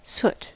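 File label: "soot", English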